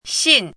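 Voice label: "xin4", Mandarin pinyin syllable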